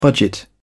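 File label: "budget", English, British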